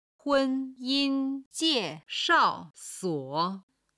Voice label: "hun1yin1jie4shao4suo3", chinese

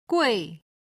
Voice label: "gui4", Chinese

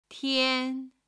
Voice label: "tian1", Chinese

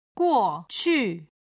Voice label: "guo4qu5", Chinese

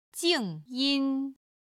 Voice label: "jingyin", Chinese

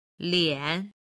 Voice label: "lian3", chinese

